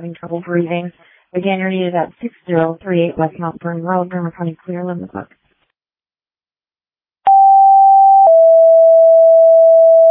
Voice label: Janesville First Responders, dispatch

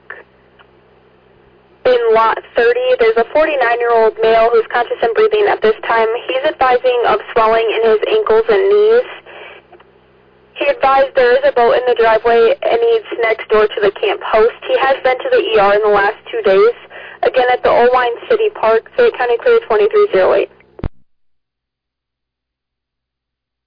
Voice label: Oelwein Ambulance, dispatch

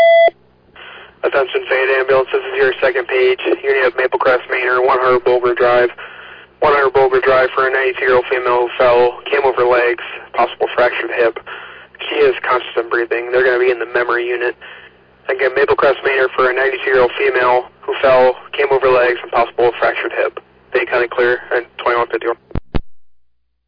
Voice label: Ambulance, dispatch

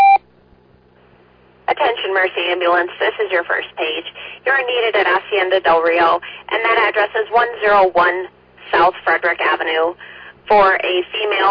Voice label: Oelwein Ambulance, dispatch

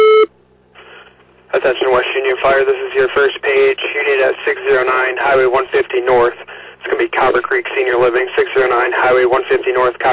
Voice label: West Union Fire, dispatch

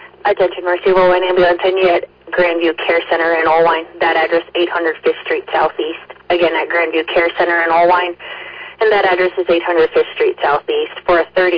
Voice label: Oelwein Ambulance, dispatch

